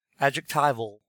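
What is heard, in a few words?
"adjectival", US English; pronunciations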